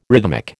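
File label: "rhythmic", American English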